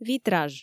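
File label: "витраж", Komi, female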